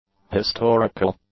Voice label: "historical", English; adjectives